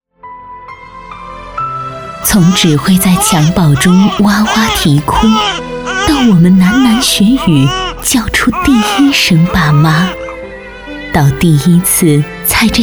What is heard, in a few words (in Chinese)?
女76 专题; 感恩父母